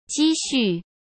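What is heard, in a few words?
"积蓄", Mandarin Chinese; hsk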